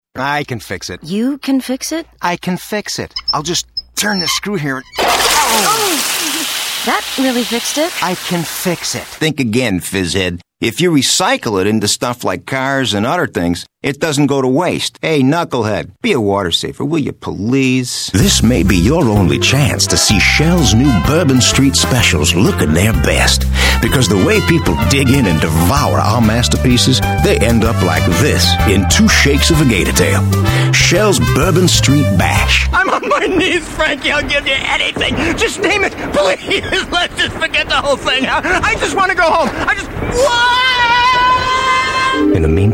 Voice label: Commercial